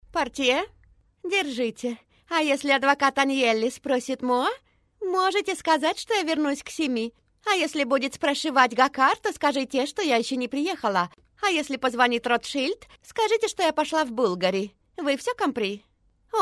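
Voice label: russian female character; voice demos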